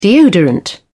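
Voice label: "deodorant", British English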